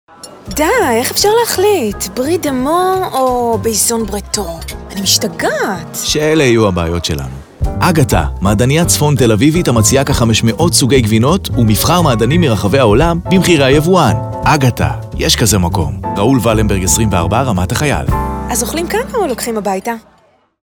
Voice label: radio; Brie de Meaux